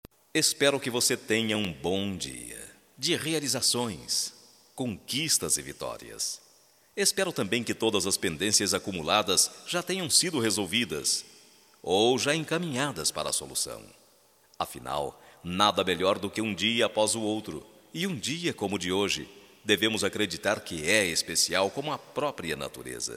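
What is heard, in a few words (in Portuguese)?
BOA SORTE, Só Voz, masculino; Telemensagens; Telemensagens 2017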